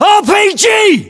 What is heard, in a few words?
UK threat rpg, battlechatter